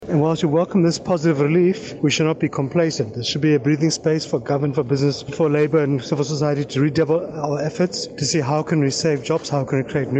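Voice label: English; Job Increase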